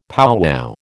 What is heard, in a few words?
"powwow", American